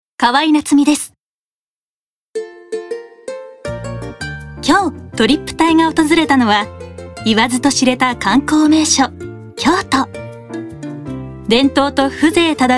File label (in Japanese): ナチュラル